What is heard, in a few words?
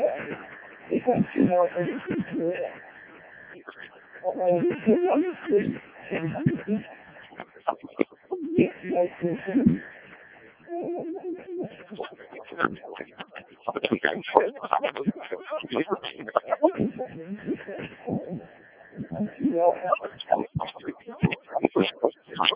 decoded speech, 7177KHz